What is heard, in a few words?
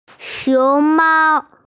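"xiong mao", Mandarin Chinese, female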